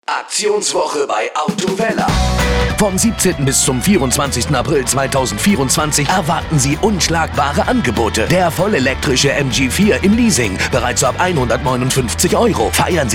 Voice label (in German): RADIOSPOT